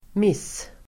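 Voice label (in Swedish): "miss-", Swedish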